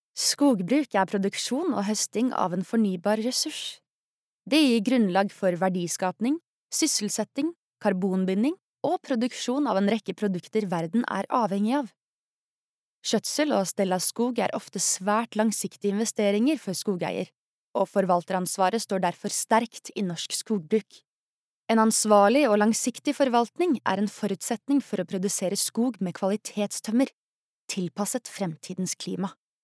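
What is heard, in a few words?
Norwegian informative, norwegian female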